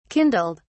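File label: "kindled", British English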